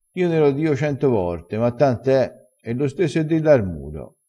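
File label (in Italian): "tant e", dialetto crespinese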